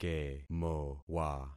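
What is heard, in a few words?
"kemowa", concatenated syllables; Affixing experiment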